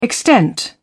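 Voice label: "extent", British English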